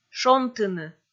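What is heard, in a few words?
"шонтыны", Komi, female; kpv audio dict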